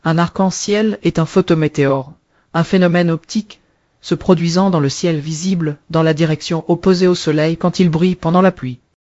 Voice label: speaker, fr FR; piper voices